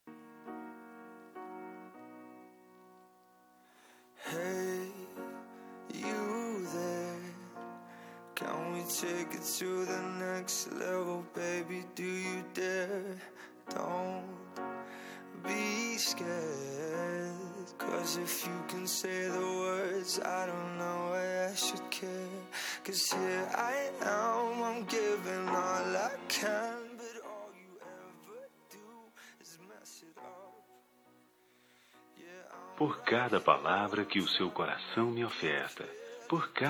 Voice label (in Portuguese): voz masculina; dia das mulheres para esposa